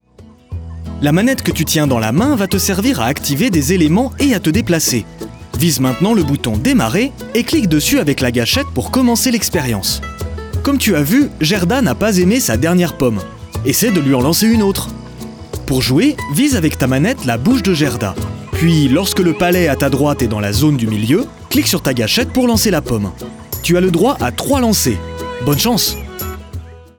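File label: Character Natural (French)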